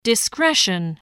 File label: "discretion", english spelling